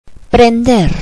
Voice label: "turn on", Spanish

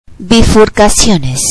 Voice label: "branching", Spanish

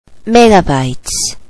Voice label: "megabytes", Spanish

